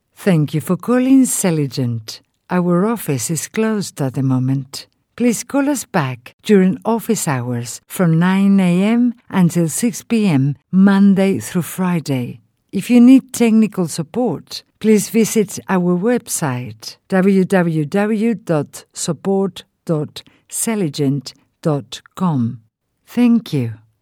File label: english ivr